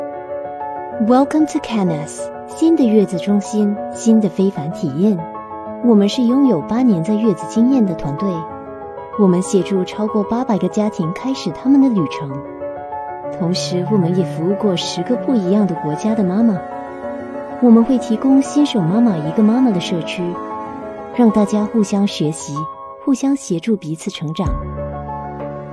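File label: MANDARIN - CORPORATE/ COMMERCIAL Soothing, Emotional, Relatable, Friendly, Approachable; MANDARIN - CORPORATE/ COMMERCIAL Soothing, Emotional, Relatable, Friendly, Approachable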